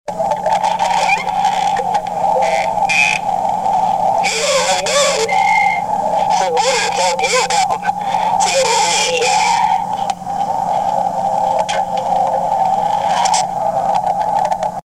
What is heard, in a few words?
Jibberish Wind